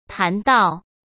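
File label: "谈到", Mandarin Chinese; hsk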